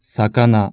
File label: FISH, japanese